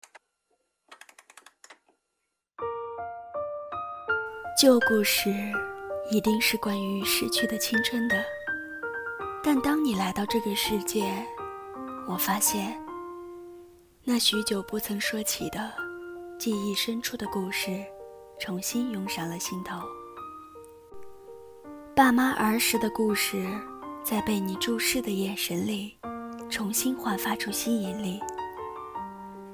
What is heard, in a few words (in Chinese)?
拒绝语言暴力公益广告片段